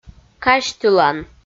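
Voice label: "казьтылан" Komi, female; kpv audio dict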